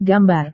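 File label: "gambar", Indonesian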